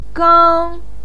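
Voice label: "gang" first tone, chinese, voice pinyin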